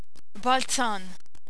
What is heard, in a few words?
balzan, Maltesisch